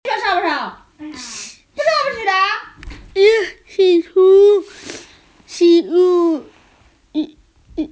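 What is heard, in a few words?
"building blocks", Mandarin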